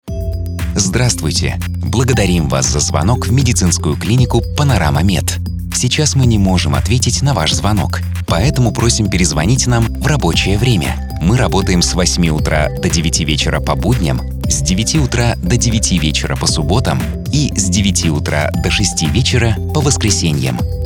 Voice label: IVR

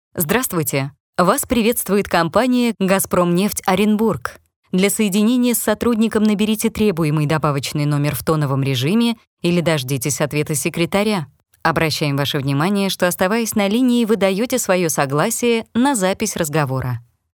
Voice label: IVR

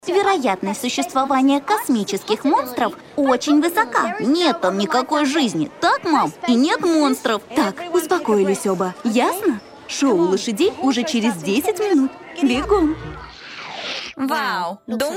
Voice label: Cartoon

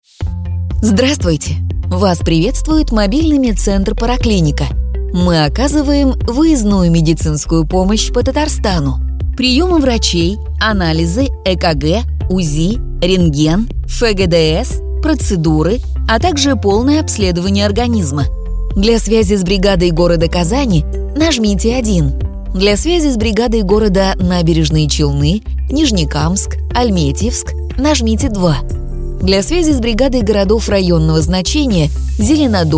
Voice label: IVR

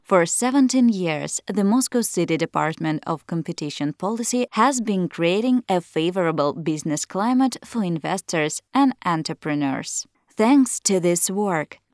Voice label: ENG

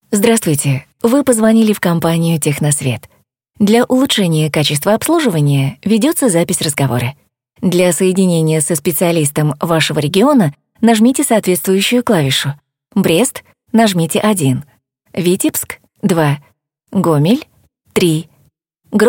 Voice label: IVR; TechnoSvet

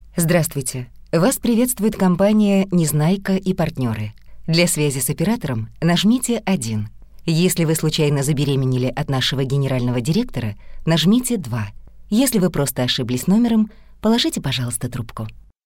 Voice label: IVR

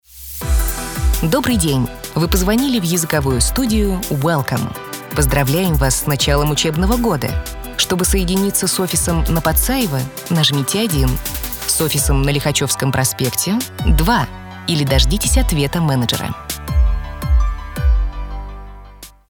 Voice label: IVR

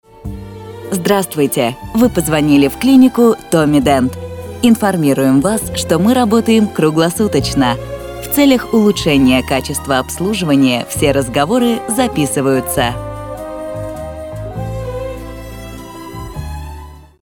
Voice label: IVR

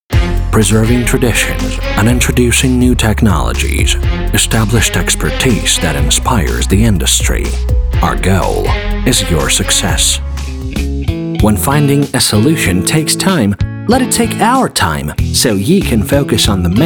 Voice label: CORPORATE

